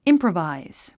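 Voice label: "improvise", English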